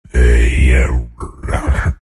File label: bear form anger; Lone Druid